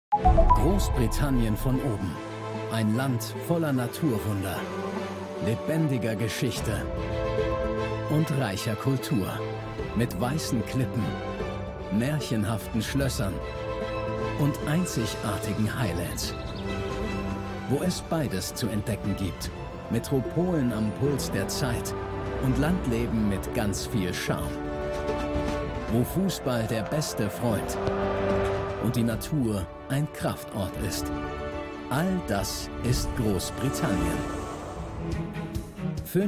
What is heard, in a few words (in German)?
TV Trailer; Grossbritannien Von Oben